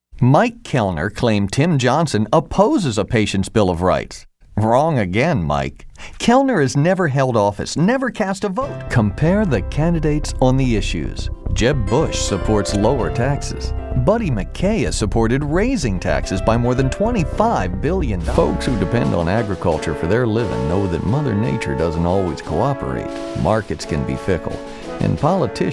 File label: Political VO short